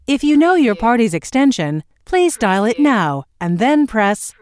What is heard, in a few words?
US female phone prompt If you know your partys extension please dial it now and then press